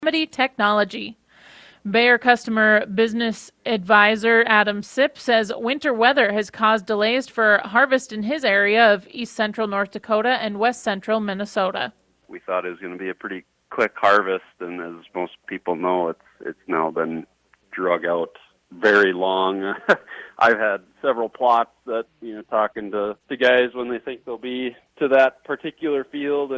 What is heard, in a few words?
air check